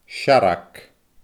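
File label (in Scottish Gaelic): "searrag", Scottish Gaelic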